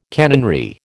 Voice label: "canonry", American

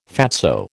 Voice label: "fatso", American

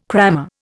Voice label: "crammer", Indian

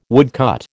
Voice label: "woodcut", American

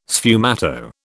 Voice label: "sfumato", British

